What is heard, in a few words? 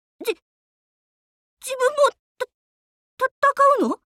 team jp; chasers; bellona